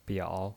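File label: "biao", Mandarin Chinese, third tone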